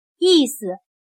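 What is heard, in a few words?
"意思", Chinese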